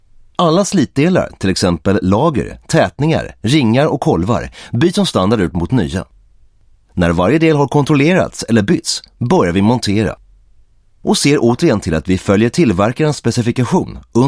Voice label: Swedish, Swedish Male; Agco Reman